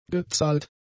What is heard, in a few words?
"gezahlt", German; adjectives